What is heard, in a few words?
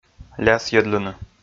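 "лясйӧдлыны", Komi, male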